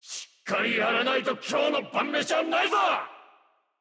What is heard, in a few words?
jp Voice Skill